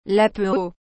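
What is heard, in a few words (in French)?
"lapereau", French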